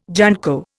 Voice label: "junco", Indian